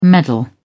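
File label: "meddle", English, British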